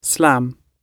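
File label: "slam", British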